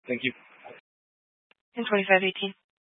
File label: Sheriff Dispatch, Scanner audio recording